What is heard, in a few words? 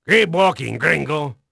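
Spanish male, voice; Pirates Of The Caribbean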